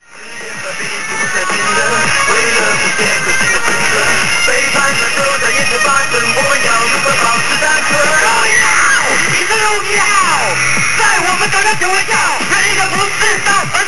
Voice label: voice crack

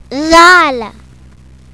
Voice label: "dhal", Arabic

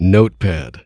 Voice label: "notepad", computer voice

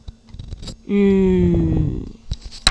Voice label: Spanish U

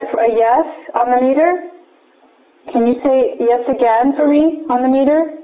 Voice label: man saying yes over my voice

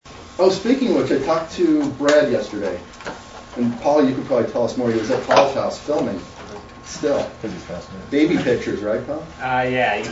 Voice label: Documentary

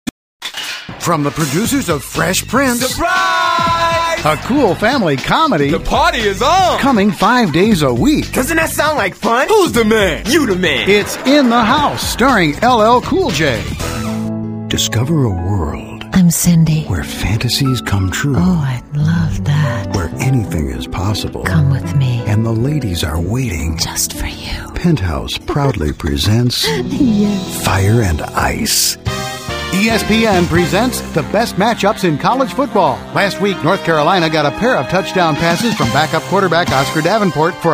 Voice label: radio demo

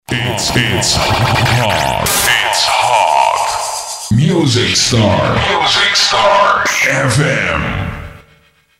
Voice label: It's hot music star FM, jingles

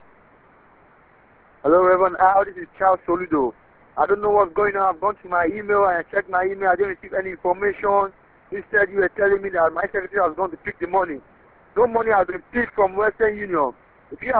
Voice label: angry

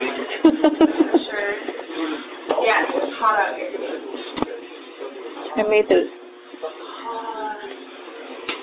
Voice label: woman repeating hot

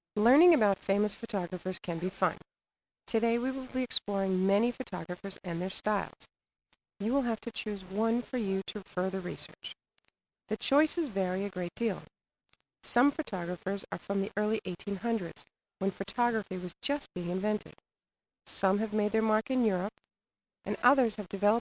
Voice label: narration

